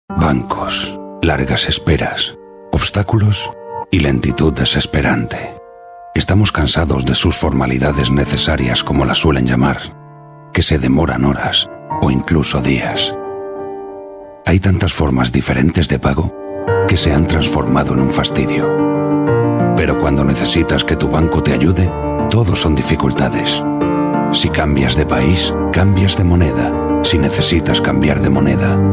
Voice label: spanish voice over male narrator

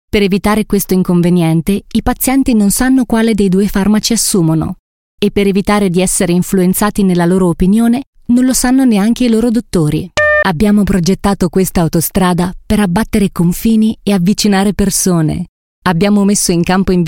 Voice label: italian voice over female commercial

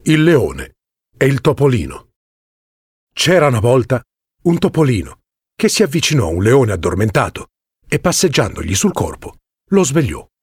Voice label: italian voice over male audiobook

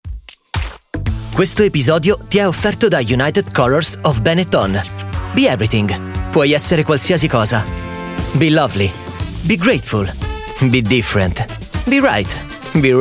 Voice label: italian voice over male commercial

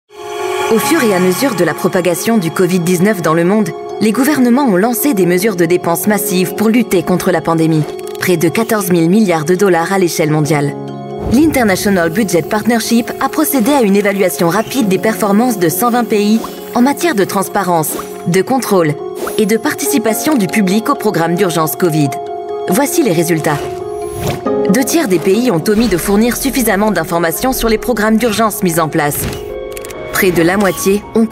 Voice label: french voice over female narrator

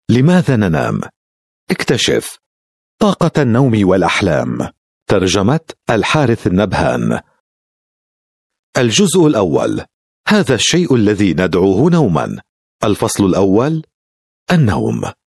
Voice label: arabic voice over male audiobook

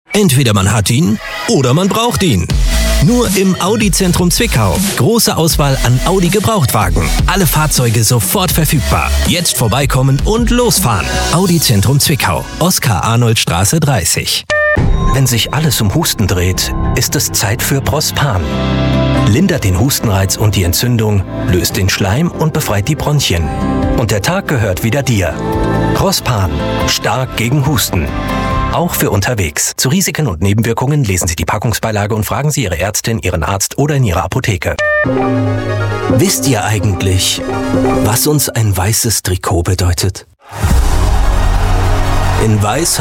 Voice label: german voice over male commercial

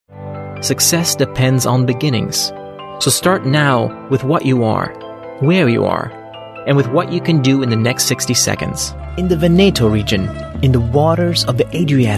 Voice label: english british voice over male narrator

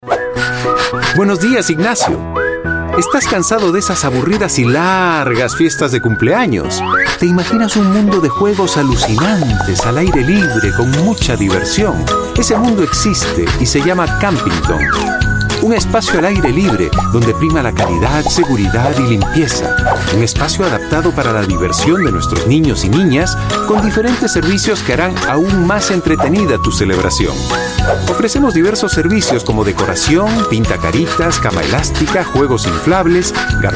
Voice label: spanish voice over male commercial

